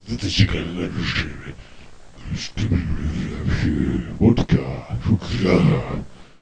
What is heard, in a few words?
library voice